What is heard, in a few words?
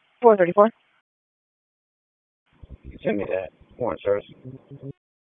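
Raleigh Police Southeast District Dispatch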